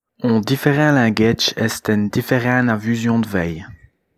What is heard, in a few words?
walloon